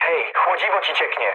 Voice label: damaged water mood low, speech pol; War Thunder